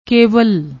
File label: "kewal", Punjabi